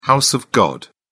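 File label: "house of god", British English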